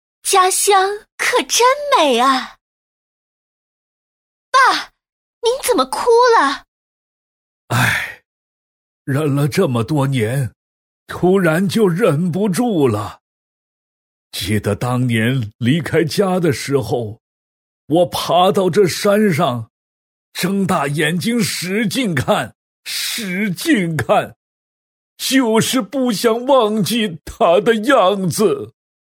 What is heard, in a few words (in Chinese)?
男 女 舞台剧现场配音 父女对话; 成熟知性